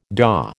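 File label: "daw", American English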